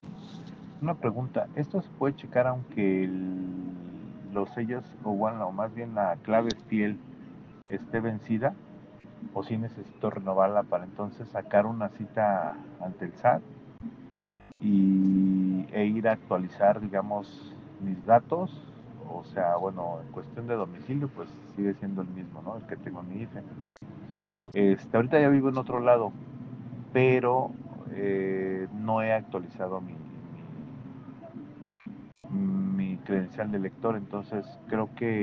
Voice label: whatsapp ptt